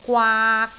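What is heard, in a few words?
"gwaak3", Cantonese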